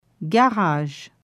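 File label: "Garage", French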